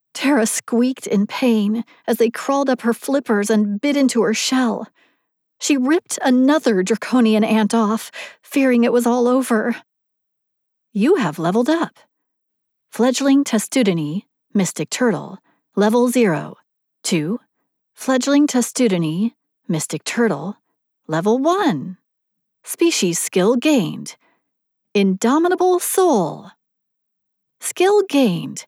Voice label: 3rd Person Narration and Stat Voice; LitRPG